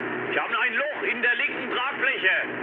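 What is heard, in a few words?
German left wing mood low, speech; War Thunder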